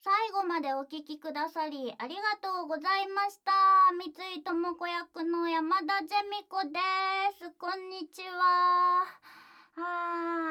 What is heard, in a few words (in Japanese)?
フリートーク